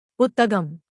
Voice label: "puththagam", tamil; texttoSpeech